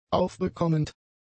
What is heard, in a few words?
"aufbekommend", German; adjectives